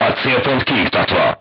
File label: voice message target destroyed, hungarian; crew tanks